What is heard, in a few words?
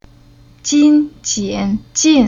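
"Jin", Chinese syllable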